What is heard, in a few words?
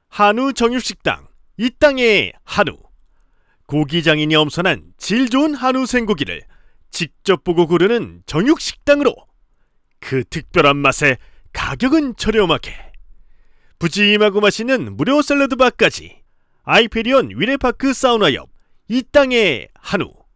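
Korean, male, audio description; korean accent voice over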